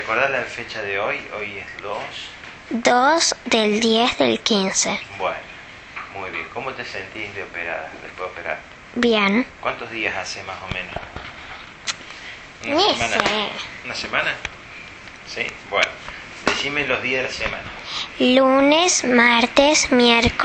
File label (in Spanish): POST OP, Sonido post operatorio, Papilomatosis. Niña de 9 años; Score de severidad de Derkay 16; Hpv tipo 11 (once); Papilomatosis de ambas cuerdas vocales, comisura anterior